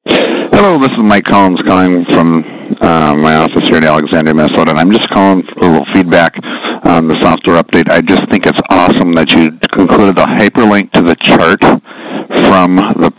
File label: voicemail message